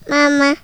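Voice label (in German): "mamaa", German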